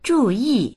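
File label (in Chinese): "zhu yi" Chinese; 注意; 注意する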